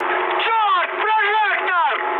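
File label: searchlite, mood high, Russian; War Thunder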